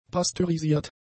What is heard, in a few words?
"pasteurisiert", German; adjectives